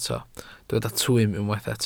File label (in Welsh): "twym", Welsh